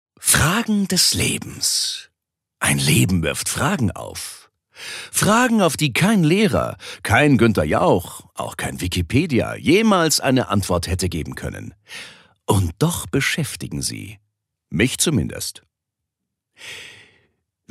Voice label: Schauspiel Dynamisch

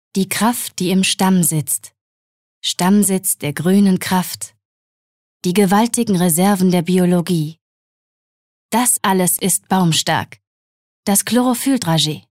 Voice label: Werbung Kraftvoll

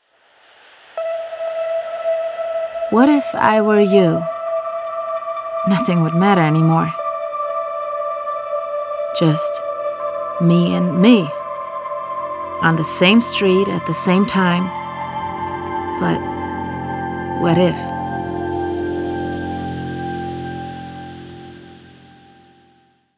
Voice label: Eng US W Fremdtake Sanft

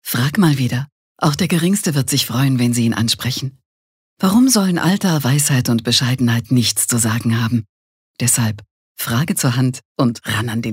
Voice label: Werbung Sanft

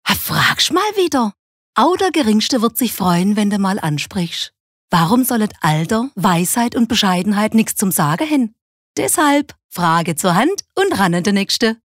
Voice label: Dialekt Schwaebisch

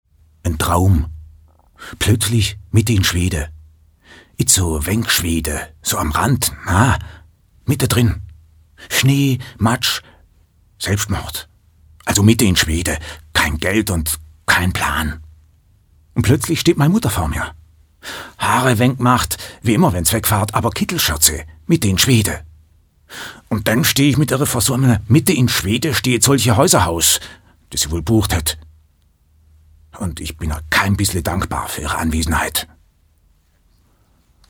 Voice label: Dialekt Badisch

